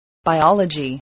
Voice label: "biology", spelling